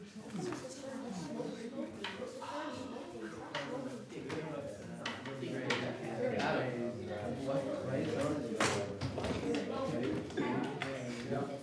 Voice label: Group Of Children Talking Murmur